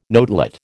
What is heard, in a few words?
"notelet", American